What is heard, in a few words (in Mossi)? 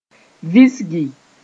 "visgi", moore